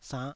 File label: "sannh5", taiwanese syllable